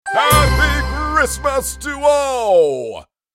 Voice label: Happy christmas to all jingle santa